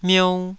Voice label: "miou2", Taiwanese

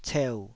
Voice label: "ceu3", taiwanese Syllables

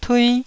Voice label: "tui1", Taiwanese

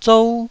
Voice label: "zou1", taiwanese

